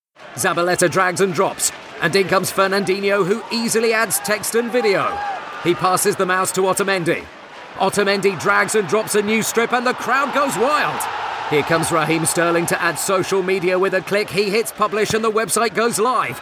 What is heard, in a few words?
football commentator